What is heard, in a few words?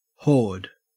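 "horde", Australian English; pronunciations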